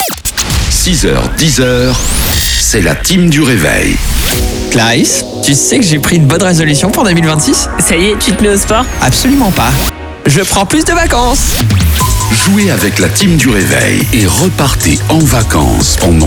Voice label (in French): PROMO REVEIL; VACANCEOLE